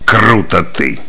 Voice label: Cheer; Kingpin Fargus